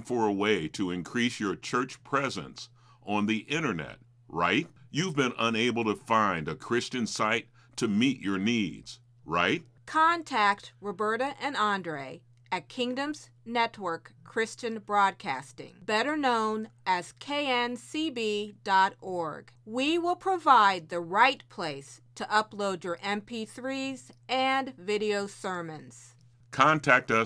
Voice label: Voice over services; KNCB